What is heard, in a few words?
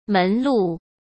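"门路", Mandarin Chinese; hsk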